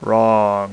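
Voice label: "wrong", male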